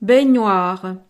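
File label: "baignoire", French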